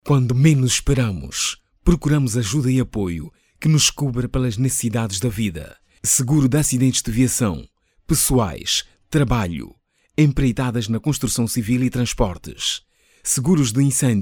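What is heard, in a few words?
Portuguese Mozambique male voice over, sales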